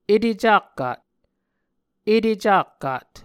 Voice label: "etecakkat", Muscogee; Mvskoke Words with Repeated Consonants; A Dictionary of Creek/Muskogee; SIL International

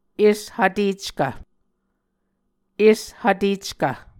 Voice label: "eshvteckv", Muscogee

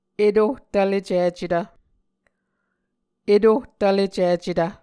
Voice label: "etohtvlecicetv", muscogee

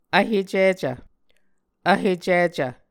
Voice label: "vhecicv", Muscogee

